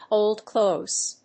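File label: "hold close", English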